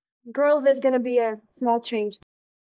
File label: Voice Acting, es